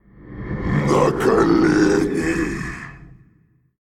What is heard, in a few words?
Male Faceless Attack; Hearthstone; датамайн обновления 5.0